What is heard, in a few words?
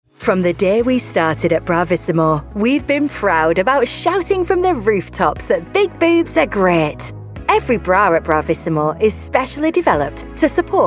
corporate elearning